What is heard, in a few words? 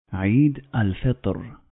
"Eid al-Fitr", Arabic